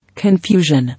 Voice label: "Confusion", English